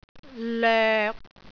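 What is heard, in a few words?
"loek6", Cantonese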